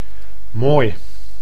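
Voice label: "mooi", Dutch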